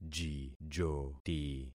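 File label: "jijoti", concatenated syllables; Affixing experiment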